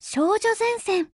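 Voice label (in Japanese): title call, Japanese; CAR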